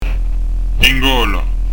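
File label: "in gola", Prononciation du corse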